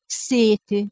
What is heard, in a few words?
"seti", Proto Germanic